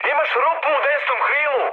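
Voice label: Serbian right wing mood high; War Thunder; speech srb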